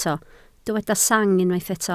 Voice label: "sang", Welsh